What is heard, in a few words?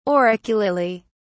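"auriculately", British English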